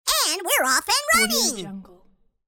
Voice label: cartoon voice and we're off and running